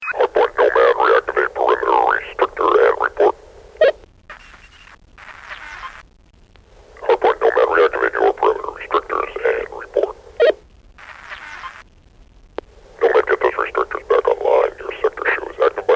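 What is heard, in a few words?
combine radio chatter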